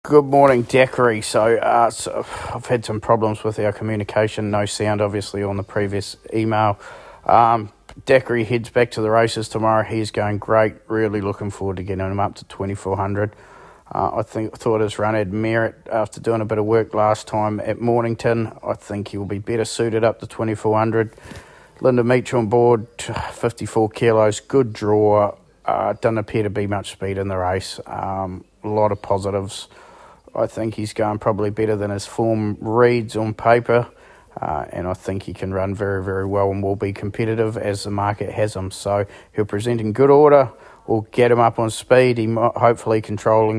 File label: voice note; Daiquiri IRE